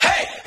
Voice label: "hey", group of men